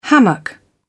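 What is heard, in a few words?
"hammock", British English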